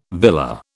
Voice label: "villa", British